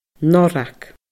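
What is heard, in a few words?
"norrag", Scottish Gaelic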